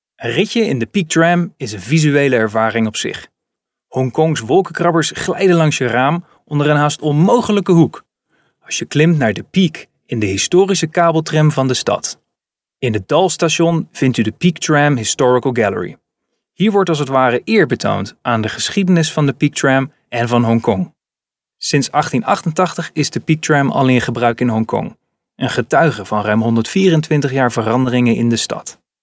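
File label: Dutch male corporate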